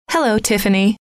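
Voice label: "hello tiffany", female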